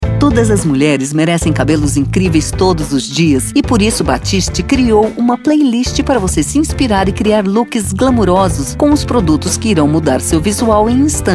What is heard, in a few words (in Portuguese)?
Spot; Batiste